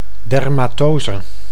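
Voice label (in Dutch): "dermatose", Dutch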